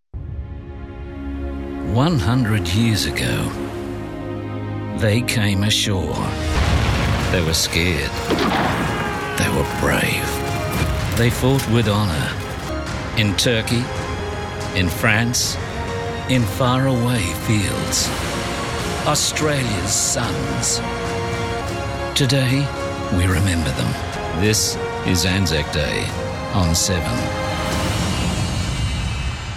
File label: Corporate